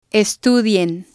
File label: "estudien", spanish